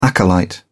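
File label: "acolyte", British English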